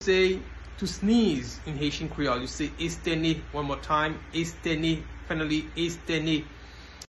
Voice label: Sneeze in Haitian Creole Estene pronunciation by a Haitian teacher